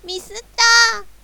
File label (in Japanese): "ミスったー", ミス